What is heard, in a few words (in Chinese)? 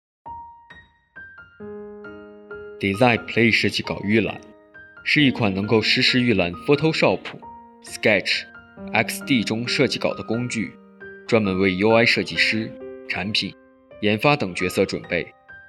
产品介绍